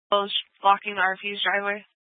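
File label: Police Dispatch, Scanner audio; Police 1 Dispatch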